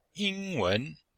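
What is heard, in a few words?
"ying1wen2", Chinese; Cours-de-Chinois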